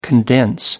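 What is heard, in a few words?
"condense", British English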